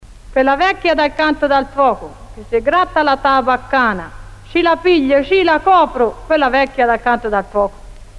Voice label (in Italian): quella vecchia dal canto dal foco (filastrocca)